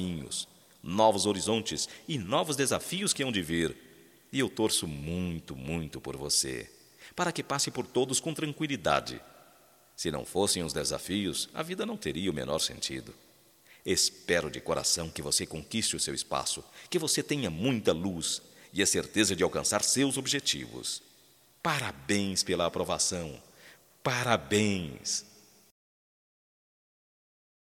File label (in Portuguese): VESTIBULAR M, Só Voz, masc; Telemensagens; Telemensagens 2017